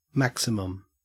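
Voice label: "maximum", Australian English; pronunciations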